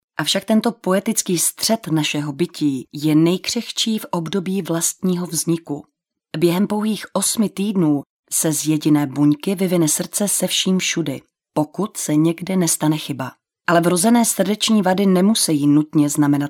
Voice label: female czech voice over narrative

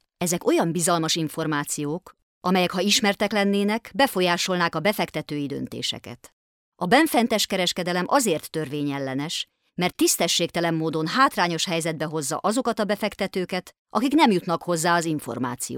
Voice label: female hungarian voice over narrative

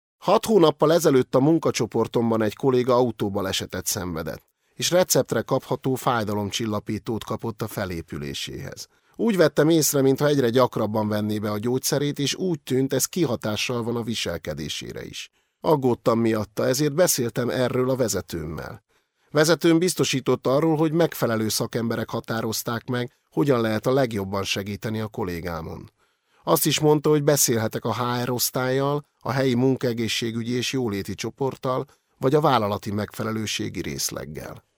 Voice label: male hungarian voice over talent narrative

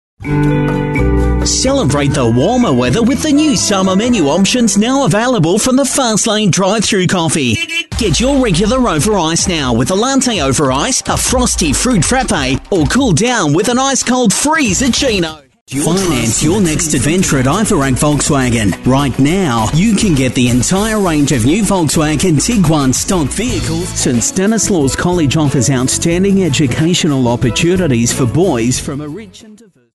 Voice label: male australian voice over sales

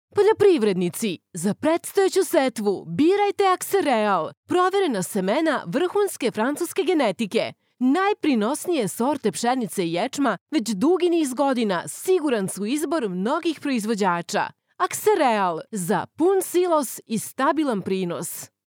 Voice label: female serbian voice over upbeat

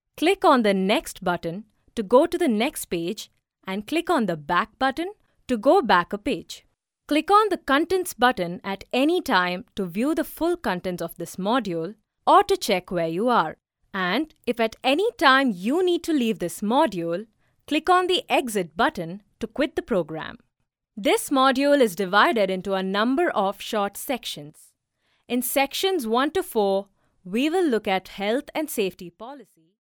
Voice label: female indian voice over narrative

